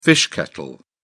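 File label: "fish kettle", British English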